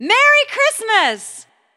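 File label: Vocal Female Merry Xmas; sfxsource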